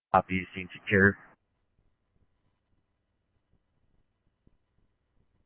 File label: Phoenix Fire, scanner; PHXFD K8; RWC; C-Chandler